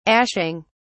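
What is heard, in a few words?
"ashing", English, British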